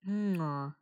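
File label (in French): "non", French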